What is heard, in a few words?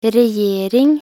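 "regjering", Norwegian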